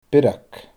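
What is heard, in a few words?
"biorag", Scottish Gaelic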